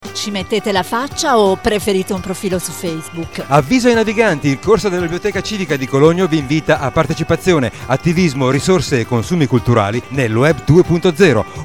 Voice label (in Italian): spot radio popolare